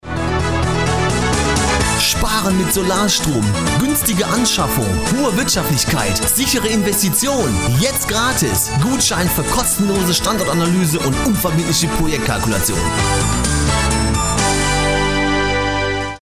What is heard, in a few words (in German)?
Werbung